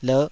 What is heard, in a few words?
"lerh5", Taiwanese